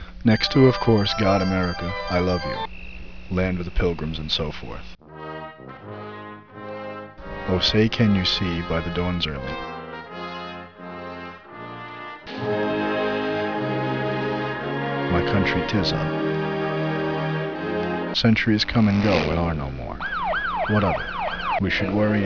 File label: poem